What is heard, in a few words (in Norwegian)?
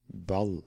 "Ball", Norwegian